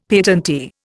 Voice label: "patentee", Indian; Dictionary

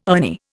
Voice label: "Ernie", Indian

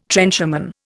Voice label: "trencherman", Indian

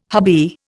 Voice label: "hubby", Indian

